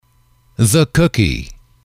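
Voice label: "the cookie", English, male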